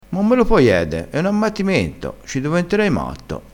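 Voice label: "ammattimento", Italian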